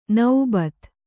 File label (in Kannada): "ನೌಬತ್ತು", kannada